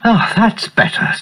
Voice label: "oh that's better", british bloke

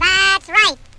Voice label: that's right cartoon

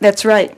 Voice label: "that's right" female

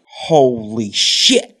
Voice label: holy shit, Voice expletives

